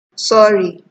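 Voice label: "sorry", Nigerian Pidgin, female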